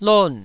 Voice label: "lon4", Taiwanese syllable